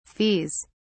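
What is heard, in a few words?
"fees", British English, dictionary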